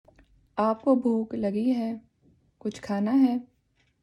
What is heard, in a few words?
Urdu